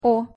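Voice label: "о", Russian pronunciation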